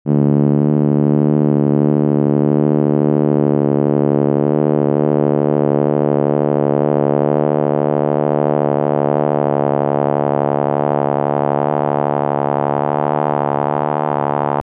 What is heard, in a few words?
subharmonics m to a interpolation